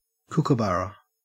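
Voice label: "kookaburra", Australian English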